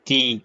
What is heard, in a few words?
short voyels arabic alphabet